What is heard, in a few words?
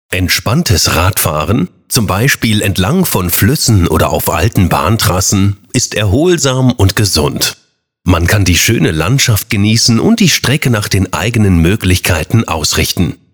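male, German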